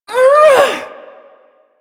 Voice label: Ability Lift, Barks; Warframe; Corpus Lich; Persona D

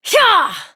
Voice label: Horse Sprint Adult; Duviri

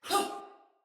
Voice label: Attack Sinecure Soldier Female

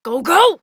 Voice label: Adult, en; Warframe; Duviri; Cinematics; Steal Horse

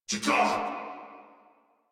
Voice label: Surprised Crewmen, Battle Barks; Warframe; Corpus Crewmen; Crewman C

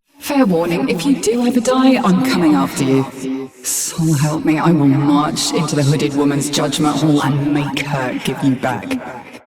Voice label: Ambient Barks, en

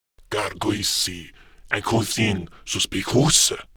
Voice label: Patrol, Male

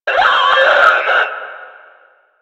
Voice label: Death, Battle Barks; Warframe; Lasrian; Lasrian Enemy C

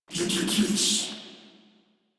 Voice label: Patrol, Battle Barks

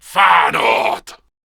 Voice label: Attack, Male

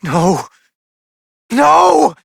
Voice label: Operator Voice, en; Warframe; New War

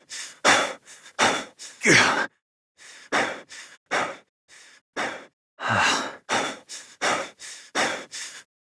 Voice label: Operator Voice, en; Warframe; Entrati Lab Quest; Full Quest

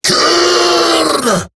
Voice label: Ability Short Cast Eximus Grineer Male; Battle Barks

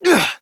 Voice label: Horse Mount Adult, Dialog; Duviri

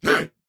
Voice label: Attack, Corpus Venus Male; Dialog; Barks; Battle; Warframe; CorpusVenusMaleA

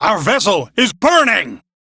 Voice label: Crew See Fire, Male; Warframe; Railjack Crew; Arbiters Hexis

